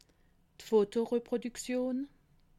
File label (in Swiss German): "fotoreproduktion", alsace